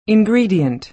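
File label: "ingredient", American English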